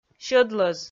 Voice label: "сьӧдлӧз", Komi